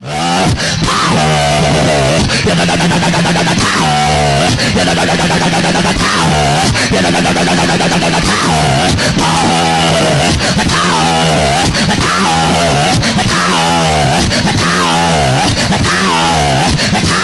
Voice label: Speaking in Tongues; Protestia